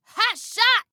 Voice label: Compliment Great Shot, Female